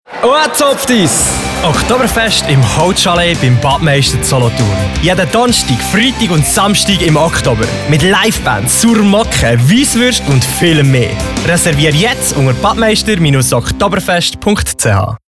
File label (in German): radiospot; badmeister oktoberfest 2020